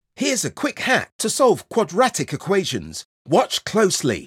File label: British Accent Education Approachable For Social Media